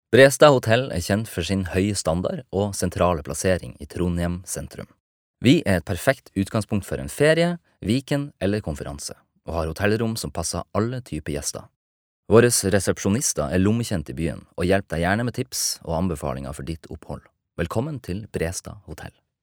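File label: Norwegian informativ, mann; norsk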